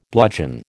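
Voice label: "bludgeon", American English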